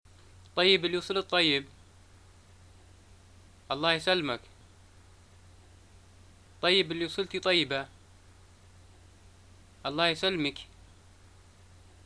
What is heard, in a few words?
greeting arrivals, arabic